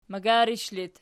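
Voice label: "magarish" locative, Archi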